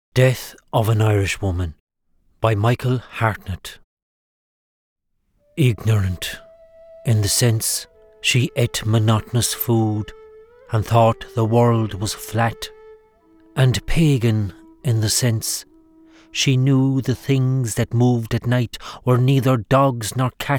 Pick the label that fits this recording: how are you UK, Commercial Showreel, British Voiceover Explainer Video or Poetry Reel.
Poetry Reel